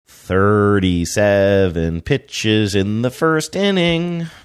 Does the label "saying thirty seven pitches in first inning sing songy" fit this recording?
Yes